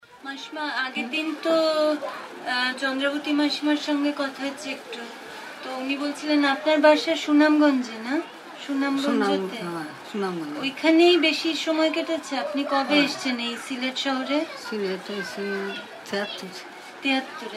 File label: Conversation